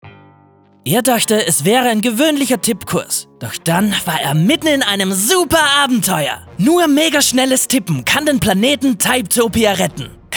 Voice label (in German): Werbung; Typetopia